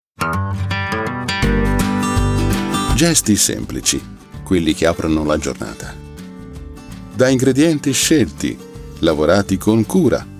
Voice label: Commercial, american voice overs; Demo Reel

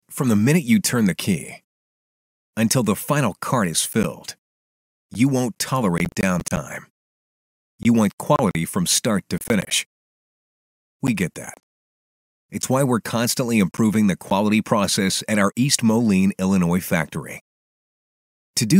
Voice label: Confident Smooth; audio books voices

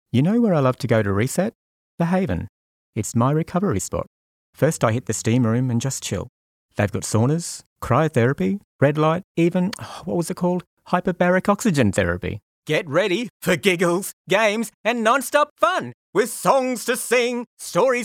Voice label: RAW VO, Australian; sydney voice over artists

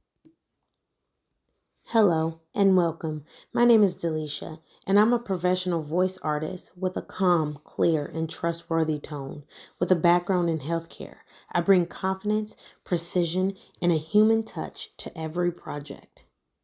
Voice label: voiceover

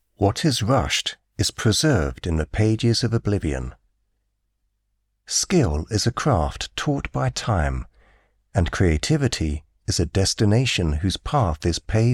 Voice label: british voice over luxury car ad

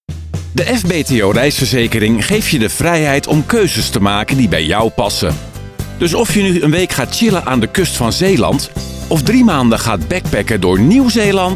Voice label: Commercials

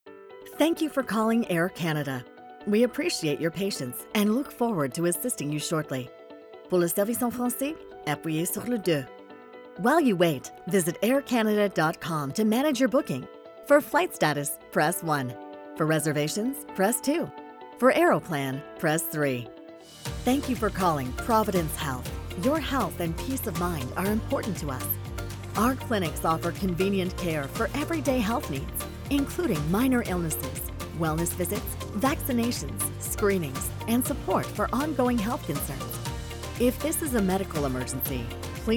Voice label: IVR English French

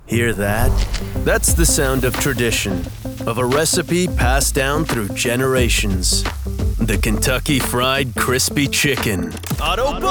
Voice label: commercial, American voice over

